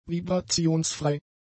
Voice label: "vibrationsfrei", German; adjectives